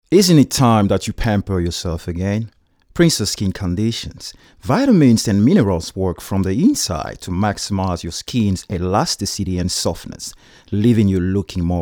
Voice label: soft sell